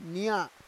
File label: "nya", Roon